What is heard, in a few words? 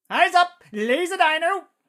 battle chatter; SyrinScape; Syrinscape unplugged; Jungleplanet dinolaser battle